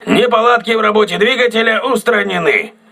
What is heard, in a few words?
voice message engine repaired, crew ships russian